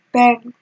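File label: "pem", Nigerian Pidgin, female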